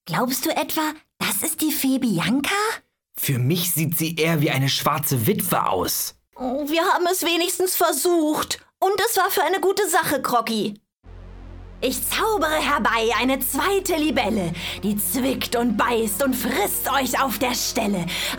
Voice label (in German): Hoerspiel Kinder